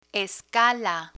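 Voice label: "escala", Spanish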